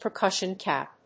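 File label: "percussion cap", English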